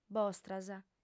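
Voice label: "bostras", female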